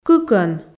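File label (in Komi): "кыкӧн", Komi, female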